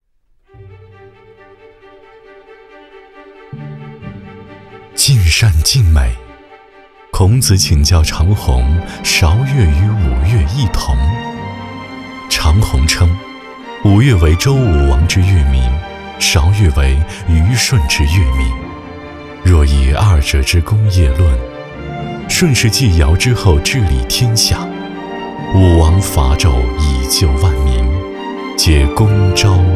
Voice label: 男 纪录; 古代沙画

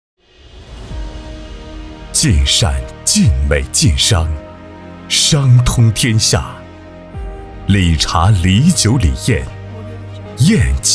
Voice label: 男 宣传片; 海归海餐饮集团

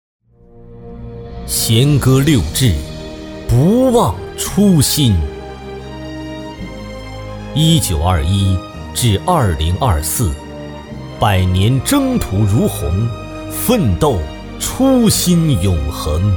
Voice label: 男 党政; 百年征途

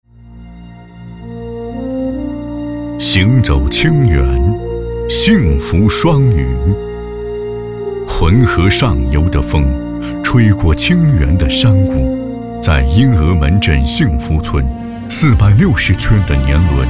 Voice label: 男 乡村文旅; 行走清原

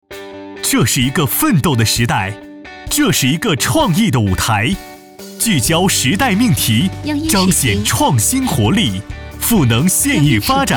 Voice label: 男 激情 预告 年轻

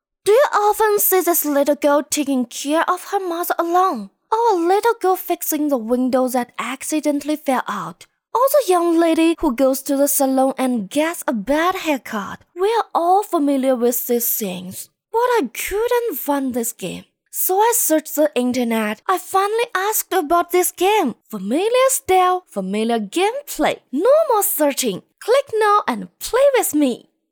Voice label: 女 英 信息流

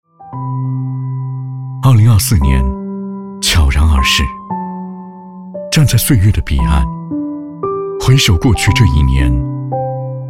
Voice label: 男 走心风格; 这一年

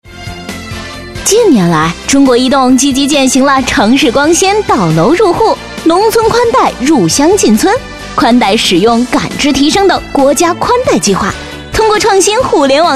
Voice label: 女 专题; 中国移动飞碟说

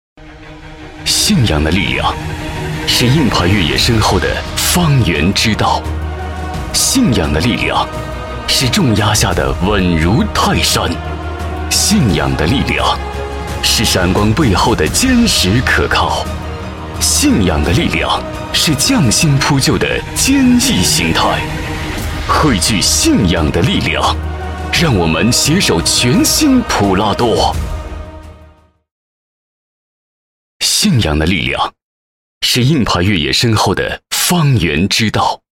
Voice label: 男, 大气浑厚; 普拉多

